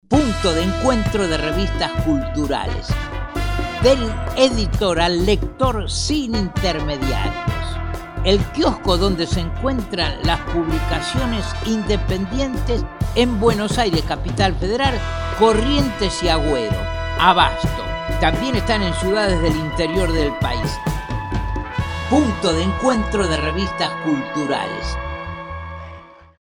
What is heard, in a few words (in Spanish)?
spot hombre